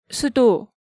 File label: "Sudo", Korean